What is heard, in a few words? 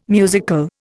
"musical", Indian